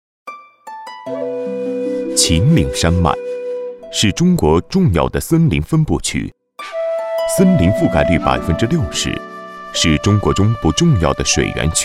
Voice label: 男 纪录片 深沉讲述 秦岭

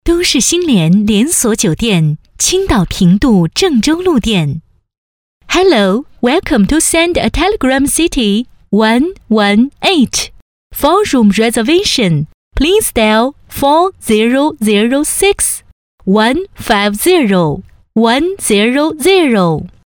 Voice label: 女 中英文彩铃

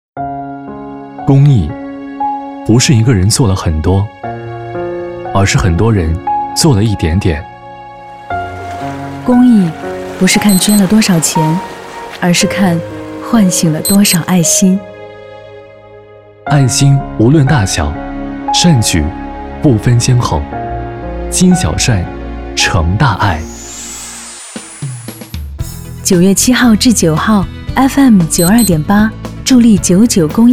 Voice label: 男, 公益旁白; 济南爱心助老计

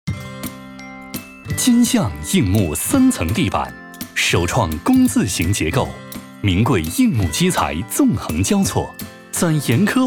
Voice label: 男 欢快广告; 金象地板

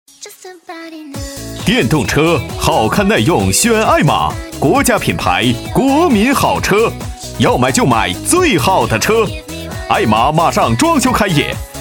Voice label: 男 促销广告

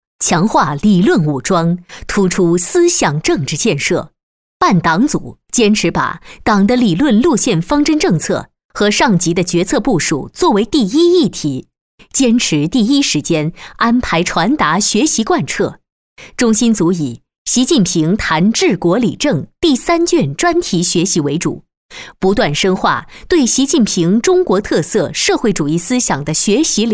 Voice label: 女 部门党建总结 坚定 有力

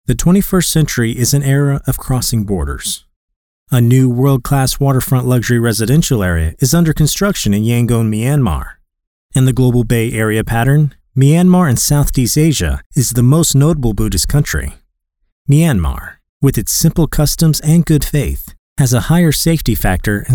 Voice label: 旁白; 缅甸翡翠湾

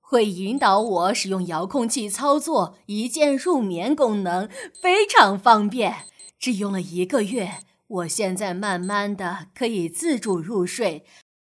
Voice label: 多角色; 麒盛配音文稿

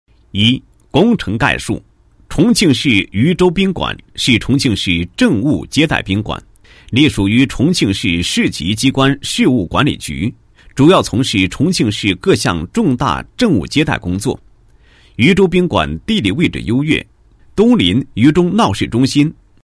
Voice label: 男27-工程介绍; 某宾馆申报装饰工程奖汇报材料

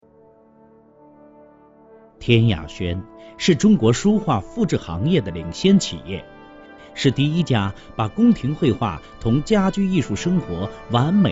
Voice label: 男,宣传片; 模仿任志红; 天雅轩

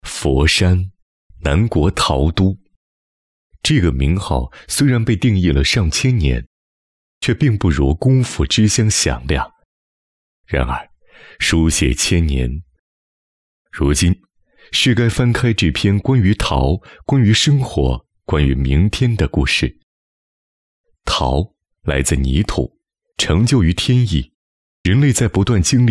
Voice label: 男 纪录片 佛山陶瓷

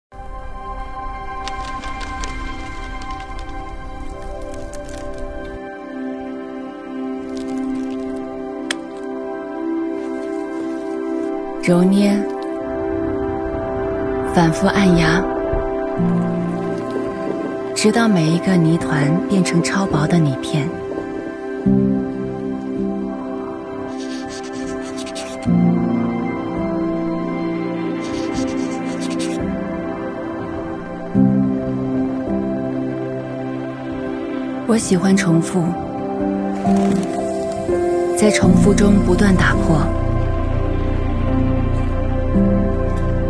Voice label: 女 旁白 温柔知性; 江诗丹顿

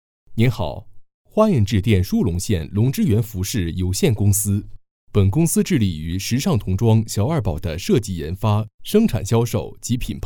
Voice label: 男 广告; 舒城县; 龙之源服饰有限公司

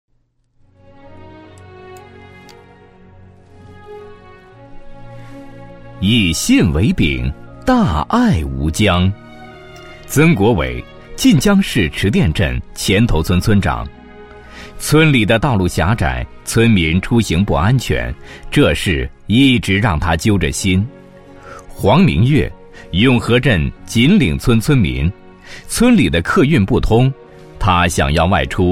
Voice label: 男 党建; 信访局党员义工进村社专题片脚本

